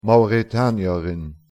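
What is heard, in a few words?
"mauretanierin", Luxembourgish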